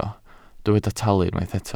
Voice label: "talu", Welsh

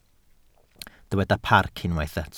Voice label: "parc", Welsh

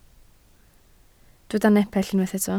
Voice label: "nepell", Welsh

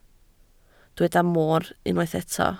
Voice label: "moor", Welsh

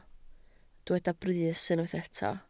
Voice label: "brys", Welsh

